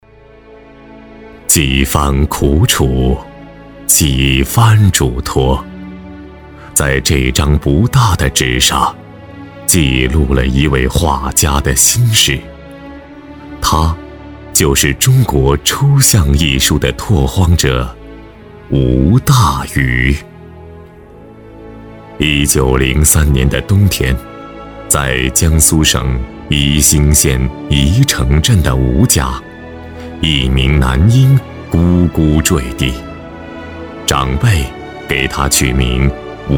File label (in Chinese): 男国 纪录片 人物; 巨匠